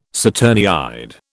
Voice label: "saturniid", British